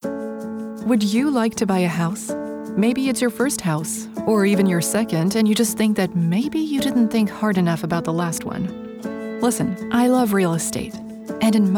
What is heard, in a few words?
explainer